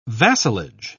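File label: "vassalage", British English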